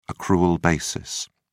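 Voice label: "accrual basis", British English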